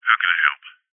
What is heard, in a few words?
human call operator male american says how can i help